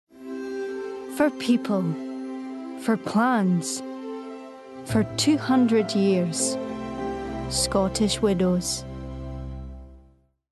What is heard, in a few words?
Scottish; ScottishWidows